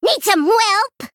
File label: Female Gnome Attack